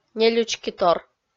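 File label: "нелючкитор", Komi, female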